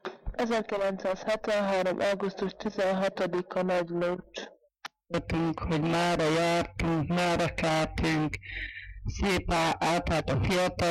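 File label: dialect collection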